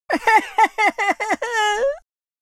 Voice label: lose loop, Super Villain, speech

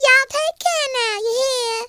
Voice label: byebye, Southern Belle; speech

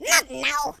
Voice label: "leave me alone", French; speech

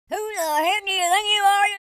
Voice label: angry, Drill Sergeant; speech

